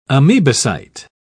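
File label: "amebocyte", English, British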